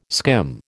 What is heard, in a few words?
"skim", American